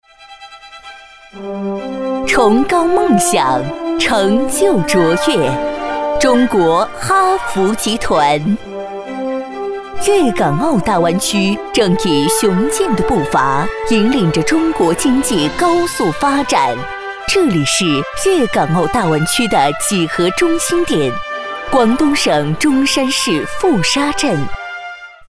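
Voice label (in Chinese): 专题 宣传片 大气, 女, 普通话; 企业; 中国哈福集团宣传片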